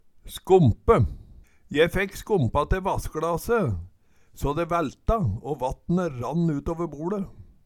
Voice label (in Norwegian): "skompe", Numedalsmål